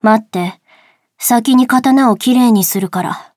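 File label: start, Japanese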